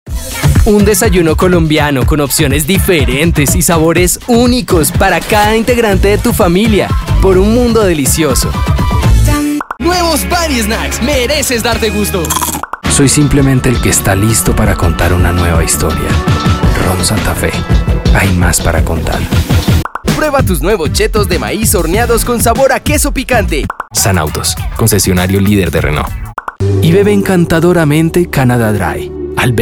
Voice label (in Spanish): neutro, espanol neutro